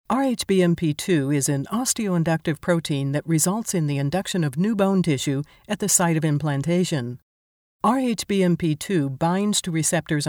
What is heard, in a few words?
female